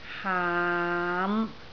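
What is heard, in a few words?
"haam5", Cantonese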